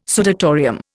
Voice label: "sudatorium", Indian